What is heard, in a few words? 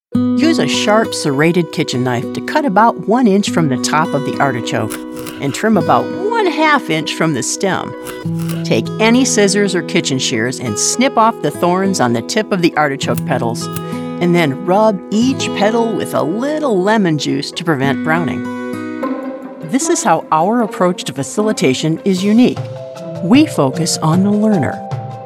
us elearning